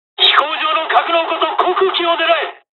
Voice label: japanese first wave; dialogs; War Thunder